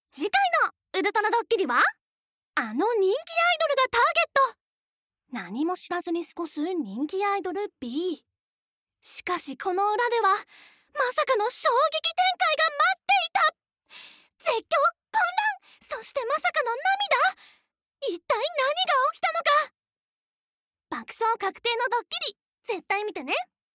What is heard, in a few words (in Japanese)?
ナレーション ドッキリ; ナレーション④ドッキリ